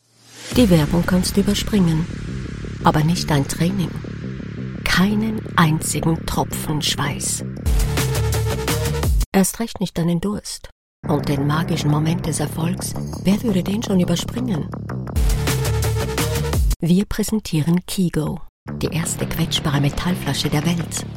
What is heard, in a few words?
German Commercials